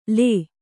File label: "ಳ", Kannada